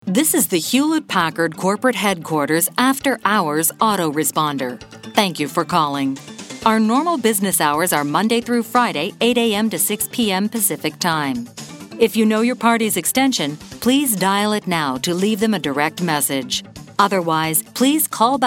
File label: Sophisticated